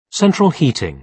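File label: "central heating", British English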